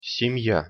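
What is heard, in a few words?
"sem ja", Russian pronunciation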